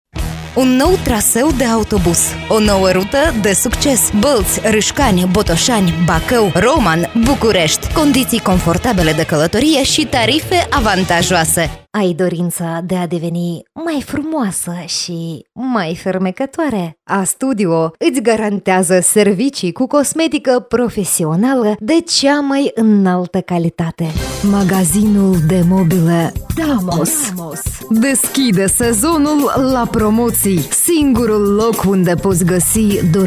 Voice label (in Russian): rumynskij